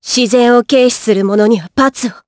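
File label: Vox Skill Japanese; Lorraine; kingsraid; jp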